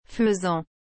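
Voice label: "faisan", French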